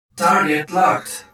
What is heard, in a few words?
Robot Voice Target Locked